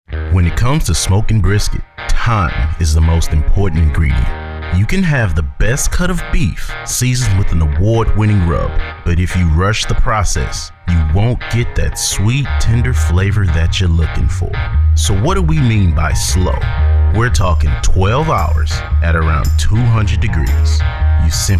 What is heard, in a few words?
Narration